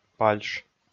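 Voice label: "пальш", Komi, male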